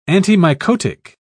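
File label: "antimycotic", British English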